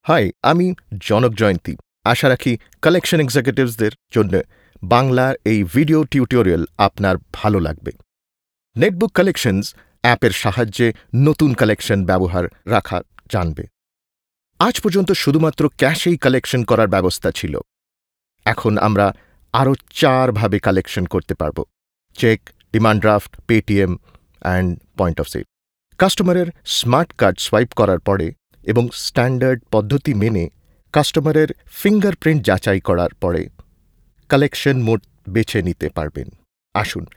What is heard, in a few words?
Tutorial Bengali